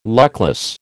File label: "luckless", American